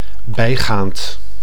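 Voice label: "bijgaand", Dutch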